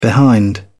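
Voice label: "behind", en, uk British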